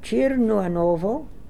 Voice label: "Chirnooanovo", Beelzebub pronounciations; Fourth Way

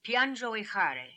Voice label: "Piandjoehary", pronounciations; Fourth Way; Beelzebub

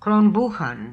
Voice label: "Kronbookhon", pronounciations; Fourth Way; Beelzebub

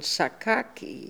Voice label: Sakaki, Beelzebub pronounciations; Fourth Way

